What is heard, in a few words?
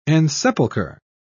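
"ensepulcher", British English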